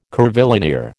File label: "curvilinear", American